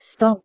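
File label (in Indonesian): "stop", Indonesian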